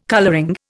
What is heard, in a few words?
"colouring", Indian